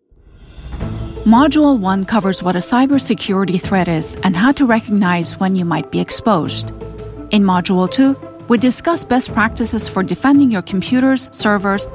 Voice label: female transatlantic accent e-learning compilation